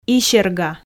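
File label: "исерга", Komi, female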